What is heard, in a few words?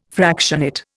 "fractionate", Indian